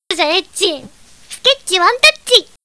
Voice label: chat